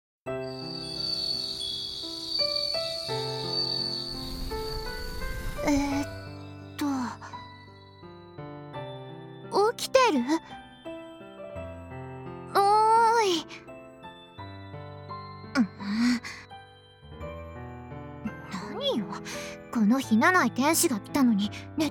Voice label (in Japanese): DOKIDOKI添い寝ボイス